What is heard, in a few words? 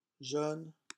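"jeune", French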